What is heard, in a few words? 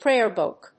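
"prayer book", English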